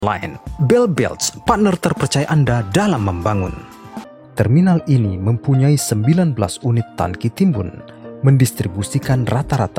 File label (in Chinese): 印尼语男 广告宣传片